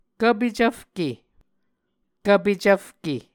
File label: "kvpe cvfke", Muscogee